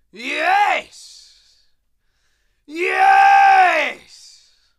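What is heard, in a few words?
"yes" sound, male; говорят «ага»; Звуки, на которых люди во время разговора соглашаются и говорят «ага»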